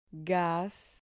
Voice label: "gas", Spanish syllable